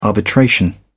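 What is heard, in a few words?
"arbitration", British English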